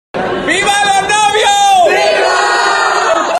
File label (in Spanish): gritando vivan los novios; willyrex